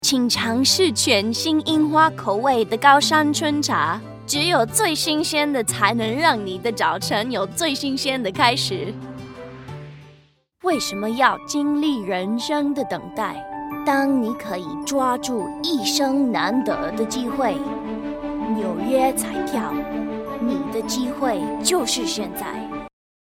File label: languages women mandarin